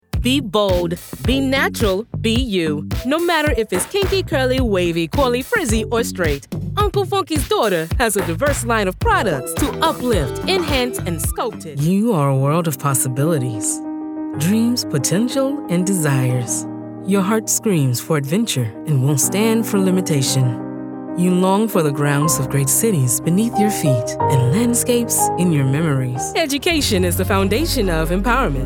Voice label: Commercial Voice-over